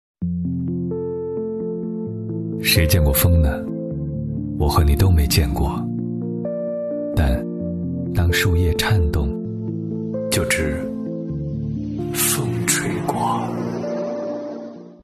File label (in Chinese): 男, 视频, 宣传广告记录篇